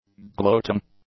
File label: "gloating", english; adjectives